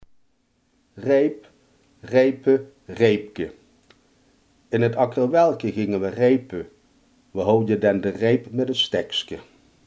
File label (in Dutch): "velg", limburgse